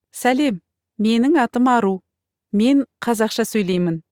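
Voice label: Kazakh, Kazakhstan; iOS Sounds; aru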